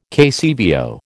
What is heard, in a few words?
"KCVO", American